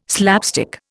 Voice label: "slapstick", Indian